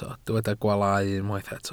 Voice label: "gwaalau", welsh